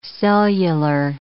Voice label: "cellular", English